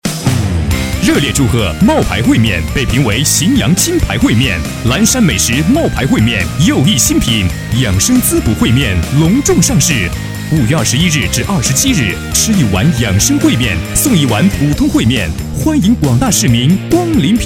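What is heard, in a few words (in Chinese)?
男国大音-（激情-洪亮）-促销; 冒牌烩面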